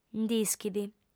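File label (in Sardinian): "nd'ischit", female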